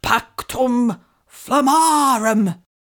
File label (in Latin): pactum flammarum commanding, incantations older female fire spells; psfx